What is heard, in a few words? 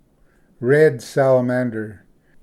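"red salamander", English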